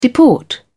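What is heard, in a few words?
"deport", English, British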